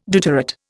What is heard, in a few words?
"deuterate", Indian